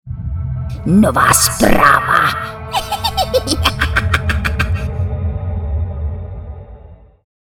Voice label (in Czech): carodejnice zlo